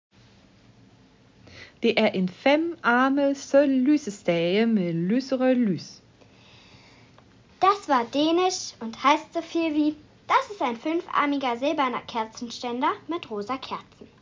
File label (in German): daenisch